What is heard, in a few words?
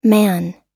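"man", American English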